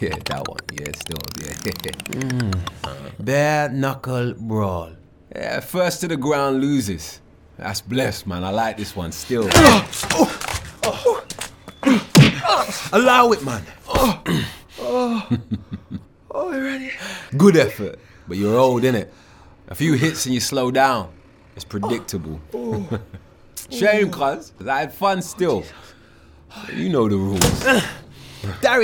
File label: Video Game Reel